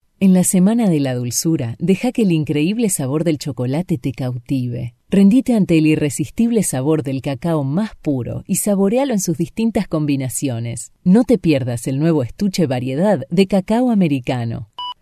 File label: argentinean spanish female argentine accent